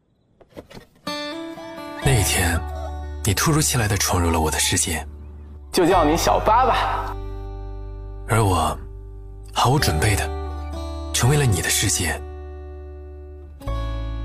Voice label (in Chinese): 男 内心独白讲述 年轻磁性, 时尚质感 年轻时尚